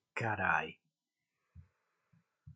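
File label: "Carai", Sardinian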